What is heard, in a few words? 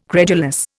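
"credulous", Indian English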